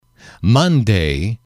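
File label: "monday", English, male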